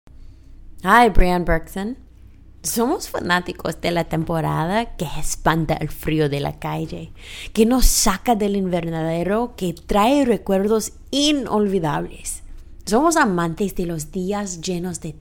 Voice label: Spanish; Commercial Demo; Voiceover